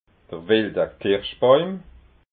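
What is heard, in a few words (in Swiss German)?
"kirschbauim", Alsatian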